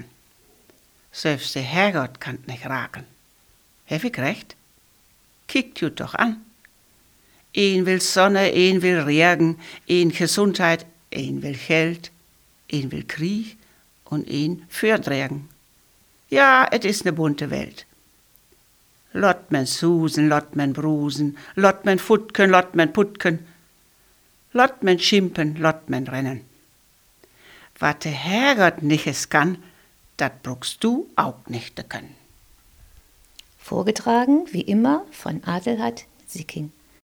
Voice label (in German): plattdeutsche